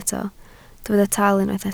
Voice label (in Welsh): "tal", Welsh